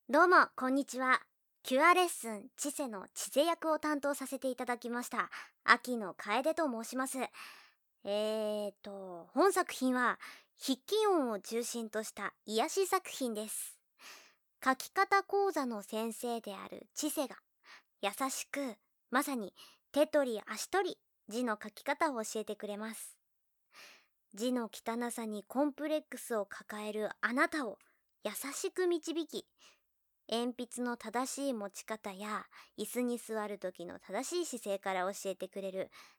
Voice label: PRボイス

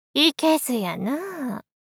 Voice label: いけずやなぁ～ お姉さん×中音域×低音域

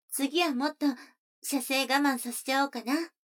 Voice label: 女の子 台詞

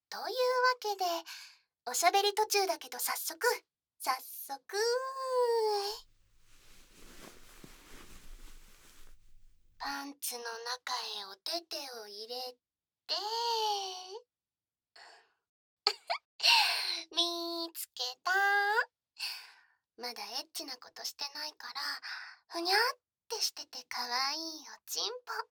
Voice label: ささやきオナニーサポート, ASMR; 憑依手コキ

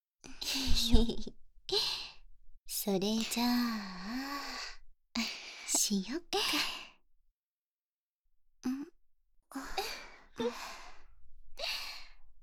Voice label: パイズリ+乳首舐め+フェラ、耳舐め+本番+アナル舐め、カウントダウン乳首舐め射精+本番＆お掃除フェラ×2（バイノーラルマイク; 効果音大きめ; 4P; 天使と悪魔×2のパイズリ+乳首舐め+フェラ、耳舐め+本番+アナル舐め、カウントダウン乳首舐め射精+本番＆お掃除フェラ×2

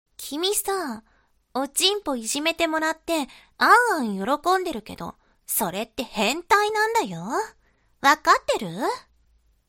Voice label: 懲罰中音声, ASMR

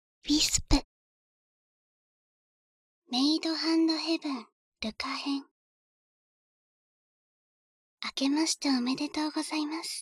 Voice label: タイトルコール

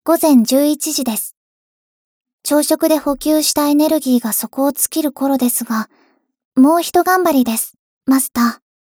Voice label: 時報ボイス 電脳少女; ボイスコレクション 電脳少女編

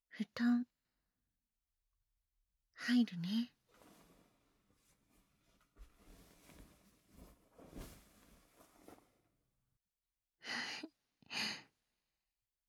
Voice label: 添い寝; 左右反転

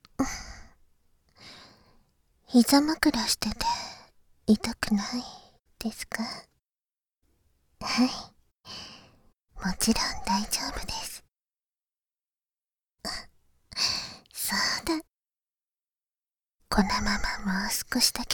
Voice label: 膝枕添い寝, ASMR; 簡易モノラル編集 効果音なし

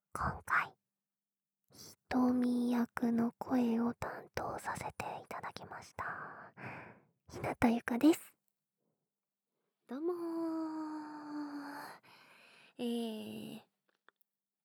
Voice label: フリートーク

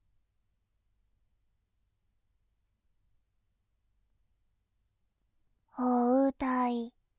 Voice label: "包帯", ASMR; モノラル

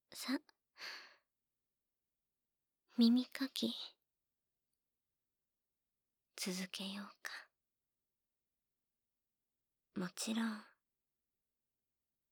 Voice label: 怖いママの耳かき左耳BGMなし, ASMR

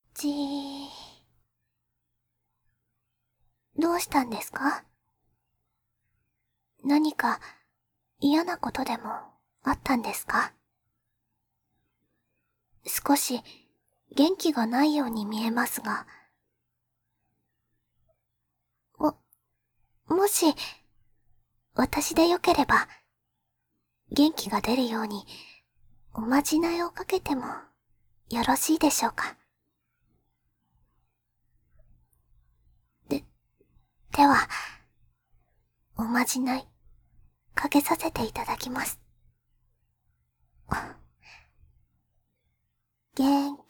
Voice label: 風花の応援メッセージ

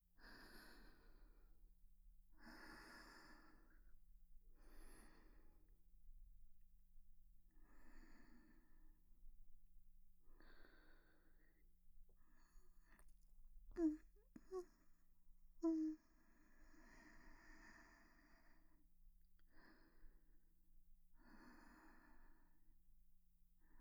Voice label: 添い寝 右側; 羽衣の添い寝♪右側

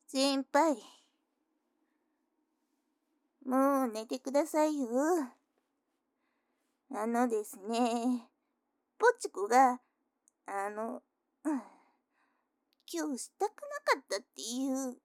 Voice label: 耳舐め, おやすみなさい; バイノーラルコース

